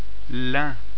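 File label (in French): "lin", French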